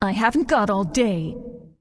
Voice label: Undead Female Warrior Greeting; NPC